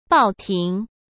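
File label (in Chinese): "报亭", Mandarin; hsk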